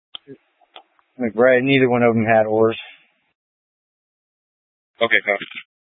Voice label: Police Dispatch, Scanner audio recording; Police 1 Dispatch